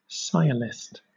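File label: "sciolist", British English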